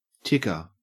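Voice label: "ticker", Australian English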